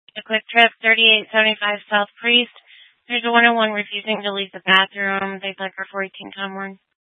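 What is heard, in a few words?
Tempe police department, scanner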